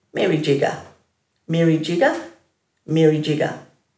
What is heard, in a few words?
"mirdjiga", Dhurga